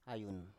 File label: "ayun" Biak